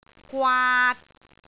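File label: "gwaat3", Cantonese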